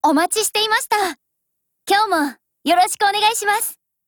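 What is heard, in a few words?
HELLO, Japanese; MAB38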